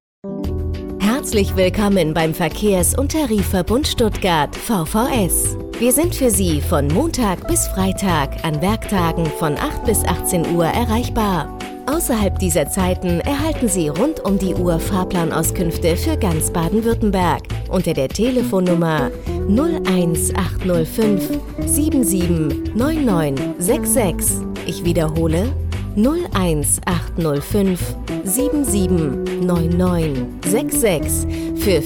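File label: deutsch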